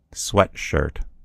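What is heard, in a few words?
"sweatshirt", American English; gcompris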